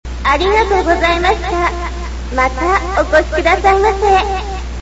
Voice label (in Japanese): sample echo